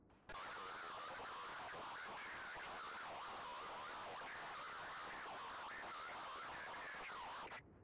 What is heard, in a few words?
Radio chatter; Voices